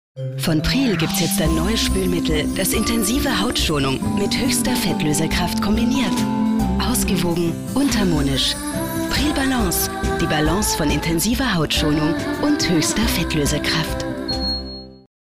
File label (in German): Frau OFF